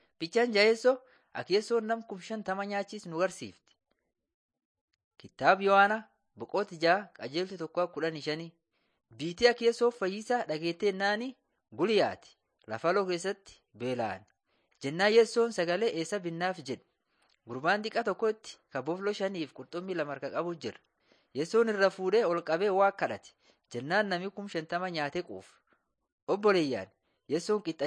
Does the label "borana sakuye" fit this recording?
Yes